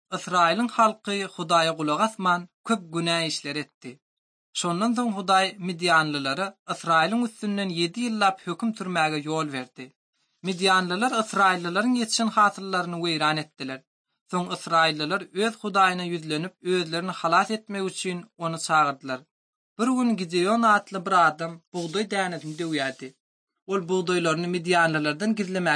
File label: turkmen, ot drama; institute for bible translation; servants of god